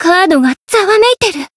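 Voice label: Voice encounter fear Japanese; radica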